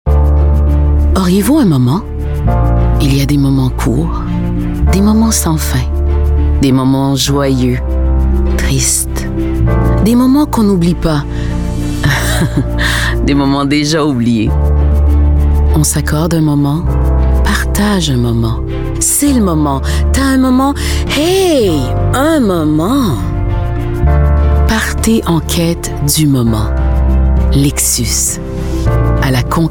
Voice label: french voice over female commercial

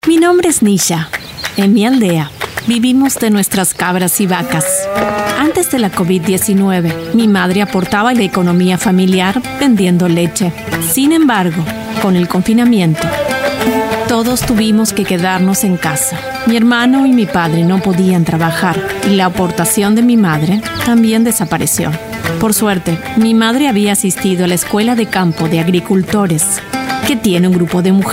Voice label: spanish voice over female narrator

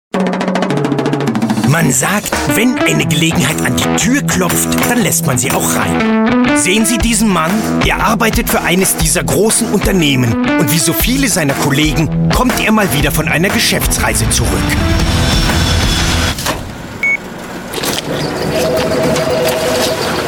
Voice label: german voice over male narrator

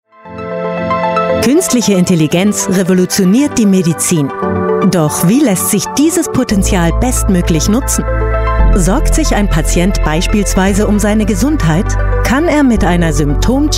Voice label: german voice over female narrator

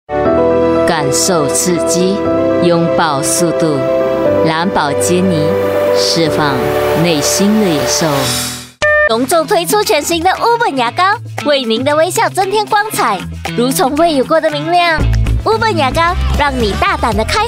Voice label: chinese voice over female commercial

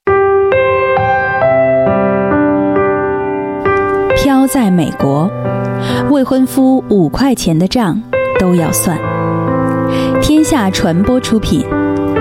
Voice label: chinese voice over female audiobook

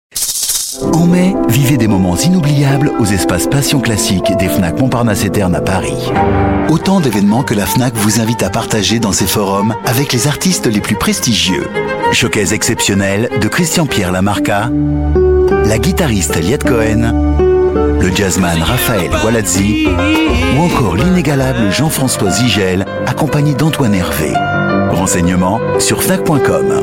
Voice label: french voice over male commercial

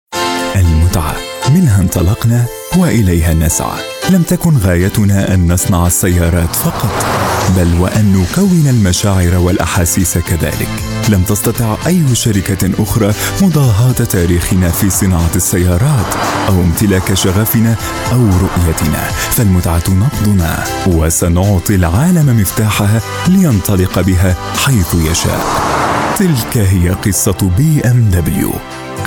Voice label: arabic voice over male commercial

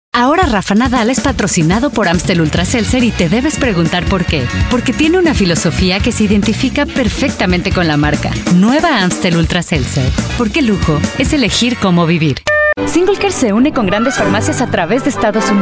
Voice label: spanish voice over female commercial

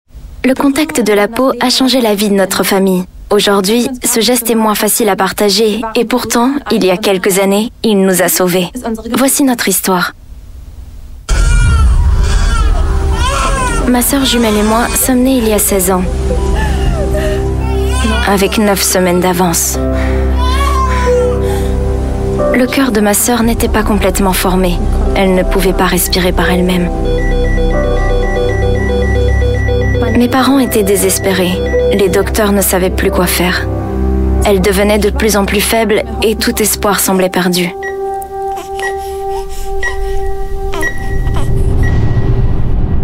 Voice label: french voice over female narrator

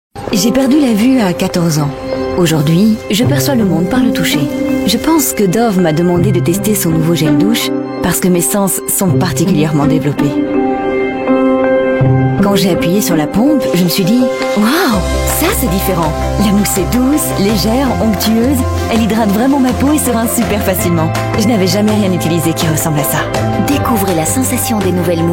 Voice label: french voice over female commercial

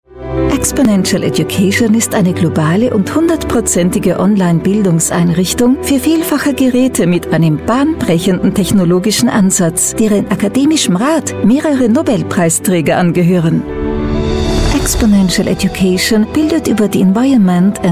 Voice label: german voice over female narrator

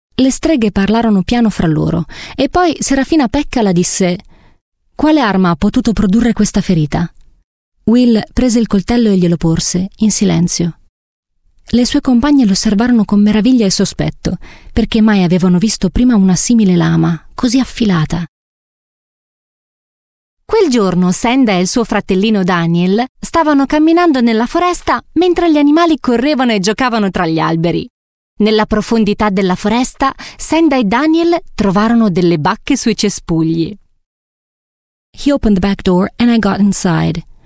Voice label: italian voice over female audiobook

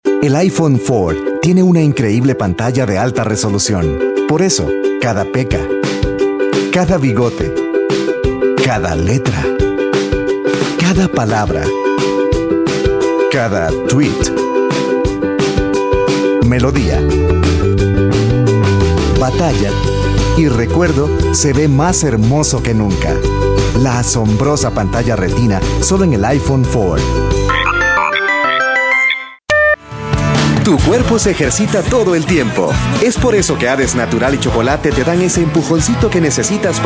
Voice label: spanish voice over male commercial

